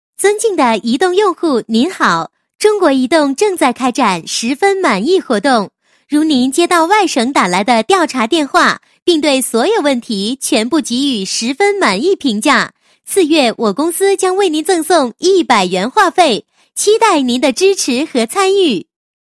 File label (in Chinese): 女 彩铃, 国语女声配音; 移动满意评份